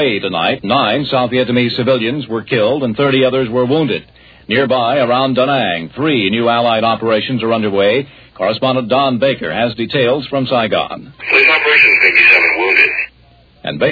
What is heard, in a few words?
American Contemporary newscast; ABC